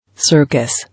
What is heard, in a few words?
"Circus", English